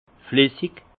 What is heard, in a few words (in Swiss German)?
"flessig", alsace; fluide; vin